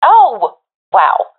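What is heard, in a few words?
compliment, professional female; vo; Counter Strike Model Pack; CS:GO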